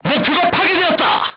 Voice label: voice message target destroyed, korean, crew tanks